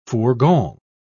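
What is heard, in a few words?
"fourgon", English, British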